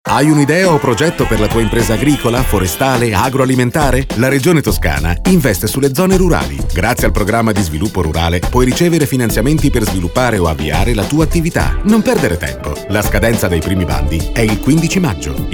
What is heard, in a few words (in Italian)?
spot radiofonico; Toscana; Impresa e giovani